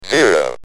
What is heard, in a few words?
"0", UK Speech